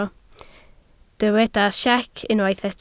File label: "siec", Welsh